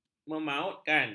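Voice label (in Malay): "memautkan", Malay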